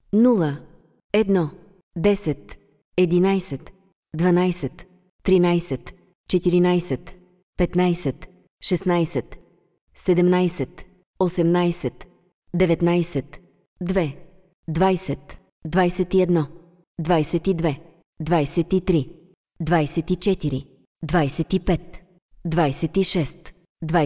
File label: Dealer Voice, sk, Roulette; real Dealer European Roulette Vintage; bg